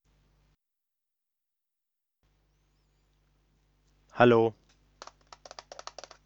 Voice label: headset audio blackwire720